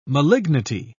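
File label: "malignity", English, uk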